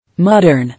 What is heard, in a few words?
"Modern", English